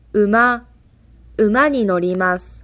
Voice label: horse, japanese